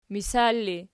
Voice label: "misal", Archi